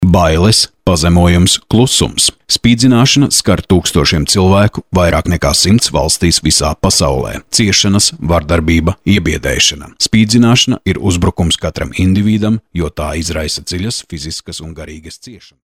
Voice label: latvian m narration, Latvian voice; voice demos